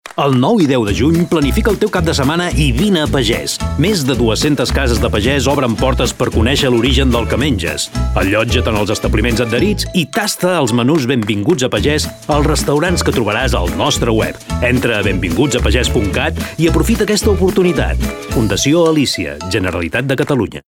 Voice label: male catalan country comercial; ideasonora

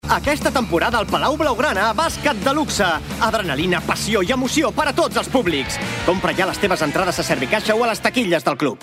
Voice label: male catalan sports comercial; ideasonora

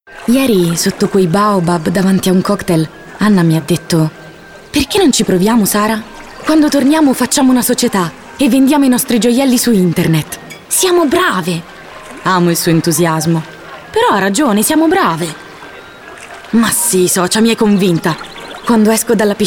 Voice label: female italian comercial

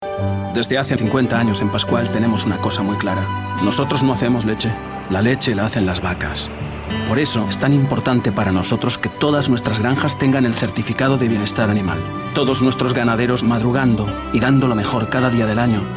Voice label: male spanish milk comercial; ideasonora

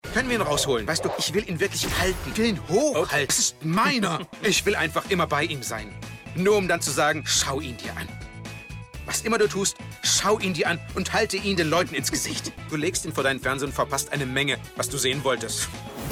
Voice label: male german comercial

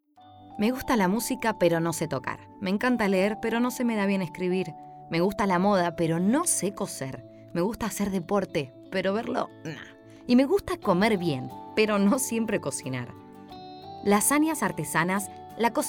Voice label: female Argentinian tv comercial; ideasonora

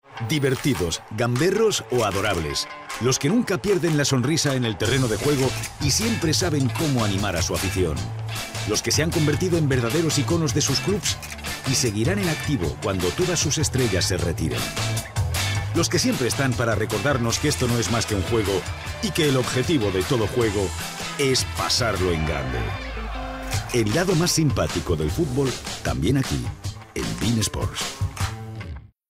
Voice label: male spanish sports comercial; ideasonora

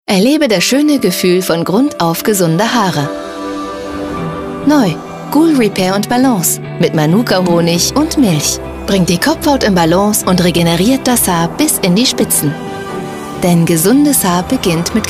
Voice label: female german commercial; ideasonora

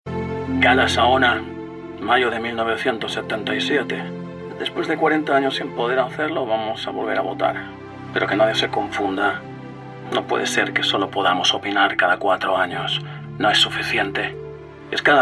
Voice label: male spanish car comercial; ideasonora

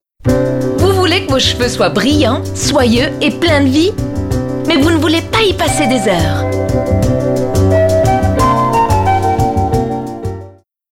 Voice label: female french clean comercial; ideasonora

